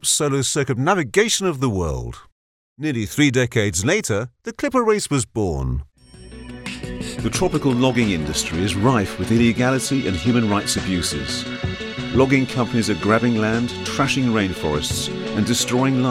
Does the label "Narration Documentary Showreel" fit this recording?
Yes